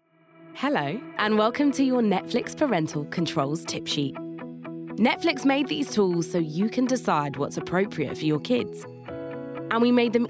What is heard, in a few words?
Narrative